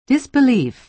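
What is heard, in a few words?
"disbelief", American English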